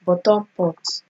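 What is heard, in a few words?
"bottom pot", Nigerian Pidgin, female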